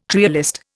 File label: "trialist", Indian